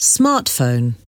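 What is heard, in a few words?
"smartphone", English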